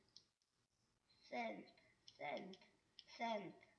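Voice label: "cent", spoken word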